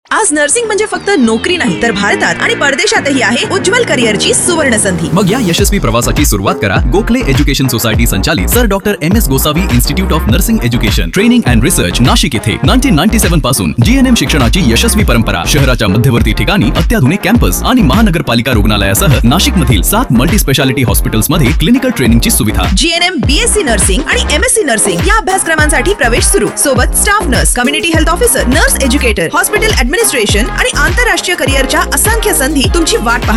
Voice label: Admission Advertisement